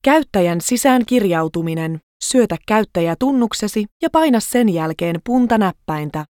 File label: Agent Login, finnish